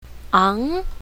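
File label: "ang2", pinyin, south, female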